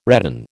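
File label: "redden", American English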